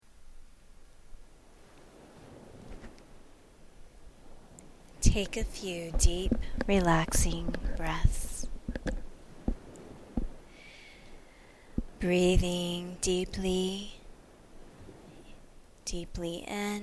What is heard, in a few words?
OCEAN Release Meditation